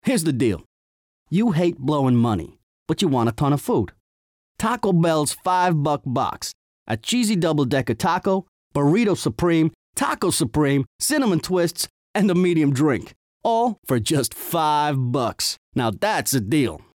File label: N.Y. accent; Deal